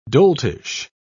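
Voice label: "doltish", British English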